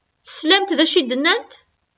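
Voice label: Kabyle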